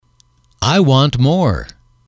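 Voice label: "I want more", English, male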